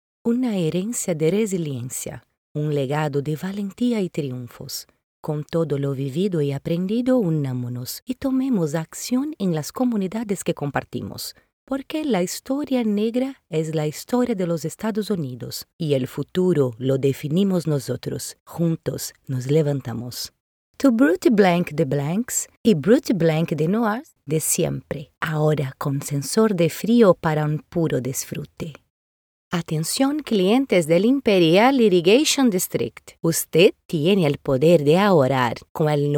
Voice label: spanish commercial